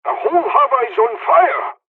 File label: japanese first wave, dialogs english; War Thunder